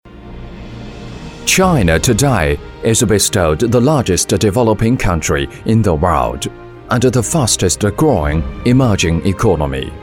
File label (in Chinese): 英男 蓬勃 稳重 自信; 湖南经济